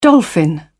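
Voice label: "dolphin", British English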